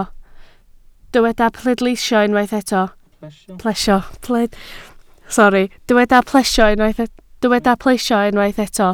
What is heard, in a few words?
"plesio", Welsh